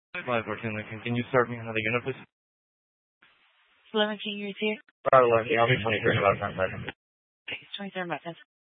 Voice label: Phx PD Dispatch, scanner